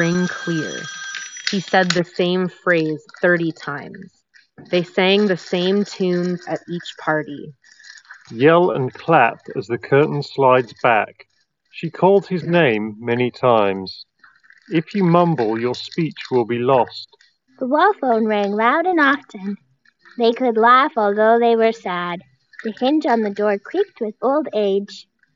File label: OnePlus buds Z2 Office microphone sample